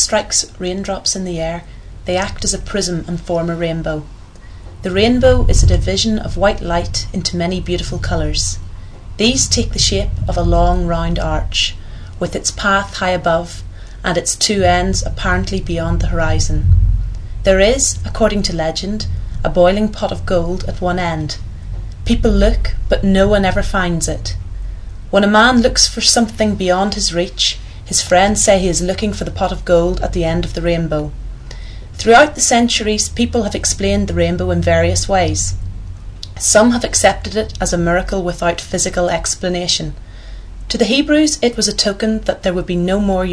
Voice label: north ireland

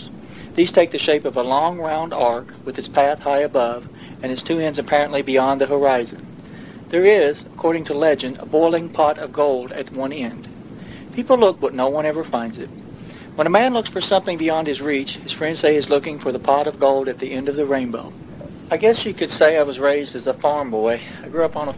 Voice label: kentucky, dialects

